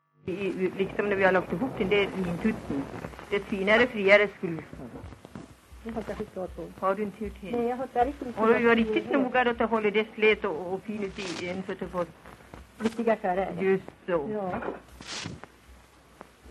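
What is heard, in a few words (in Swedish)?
Järbo Gästrikland, dialekter, svenska sagor; Folkminnen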